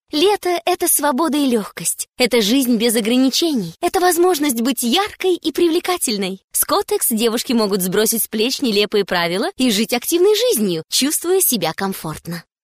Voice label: locutora rusa russian voice actress

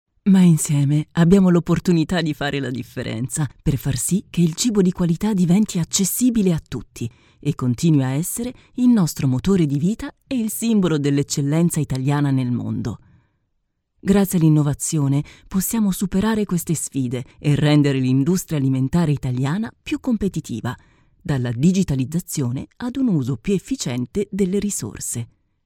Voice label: locutora italiana italian voiceover

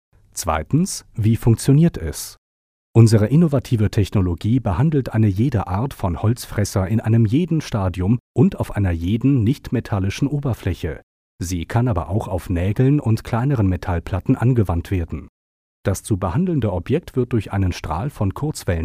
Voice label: locutor aleman german voiceover; German voice talent

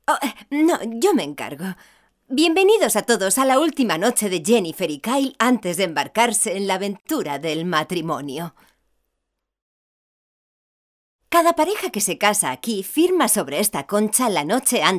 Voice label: locutora spanish voiceover dubbing; Actores y actrices de doblaje

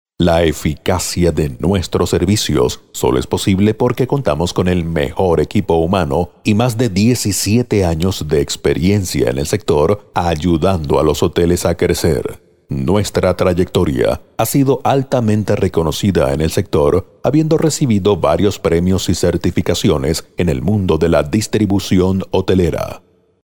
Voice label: locutor venezuela venezuelan voiceover

